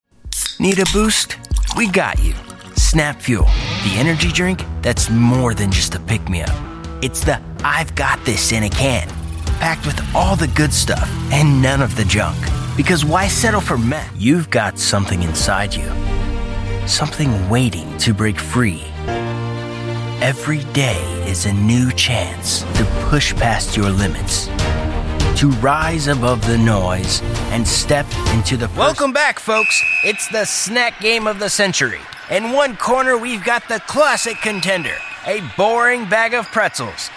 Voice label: English American commercial, rugged